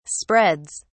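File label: "spreads", English, British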